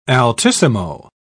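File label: "altissimo", British English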